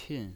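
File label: "pin" fourth tone, pinyin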